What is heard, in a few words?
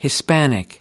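"hispanic", American English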